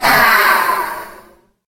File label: taunt, reptilian